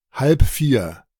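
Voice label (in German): "halb vier", German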